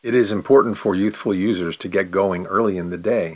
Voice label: Consonants pronounce fast